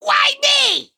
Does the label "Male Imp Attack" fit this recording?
Yes